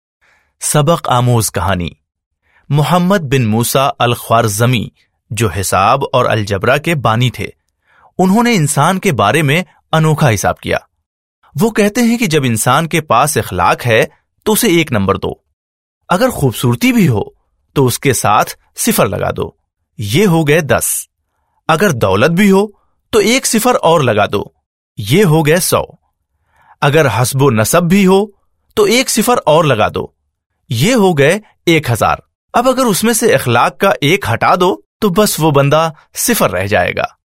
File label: Urdu, male voice